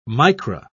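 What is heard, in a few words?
"micra", British English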